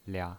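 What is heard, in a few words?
"lia", pinyin, Mandarin Chinese, neutral tone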